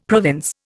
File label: "province", Indian; Dictionary